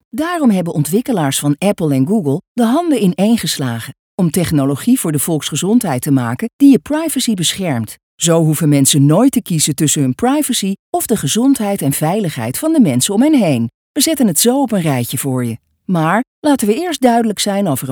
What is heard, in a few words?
corporate